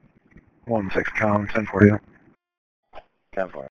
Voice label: Police Sheriff Dispatch